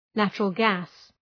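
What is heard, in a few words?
"natural gas", English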